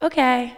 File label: pleasant